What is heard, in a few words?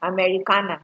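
"americaner", Nigerian Pidgin, female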